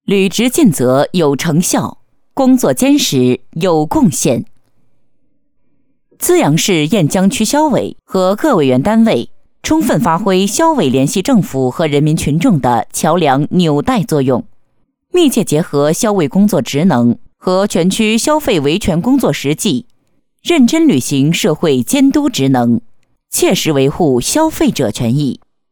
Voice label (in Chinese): 女 政府报告 权威正式; 履职尽责有成效